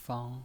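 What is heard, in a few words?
"fang" Mandarin Chinese, first tone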